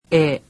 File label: japanese alphabet "we"